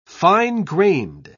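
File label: "fine-grained", British English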